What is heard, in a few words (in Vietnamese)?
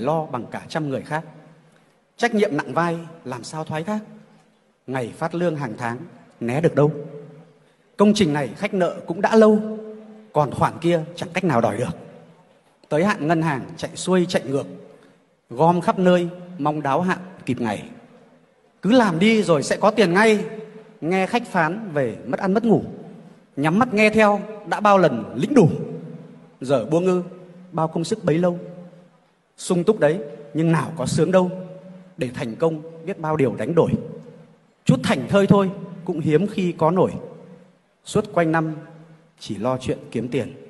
Bai tho Tu su doanh nhan rat hay y nghia